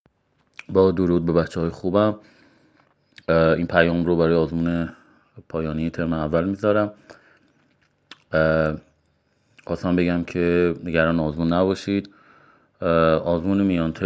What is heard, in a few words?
voice msg